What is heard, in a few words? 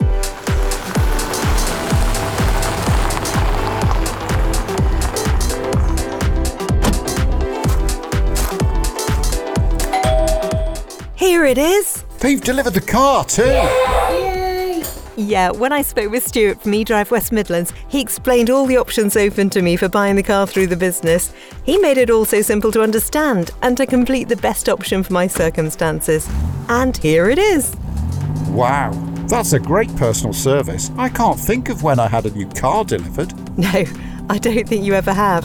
Ad; Edrive